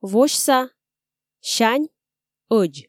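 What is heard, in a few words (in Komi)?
"восьса сянь ӧдз", Komi, female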